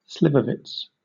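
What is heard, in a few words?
"slivovitz", British English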